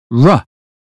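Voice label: British phonetics sound run; 英语音标发音（剑桥版）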